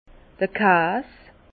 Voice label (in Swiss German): "kas", Bas Rhin; vin; fromage